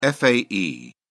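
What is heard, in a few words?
"fae", British English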